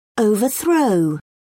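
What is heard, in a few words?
"overthrow", English, British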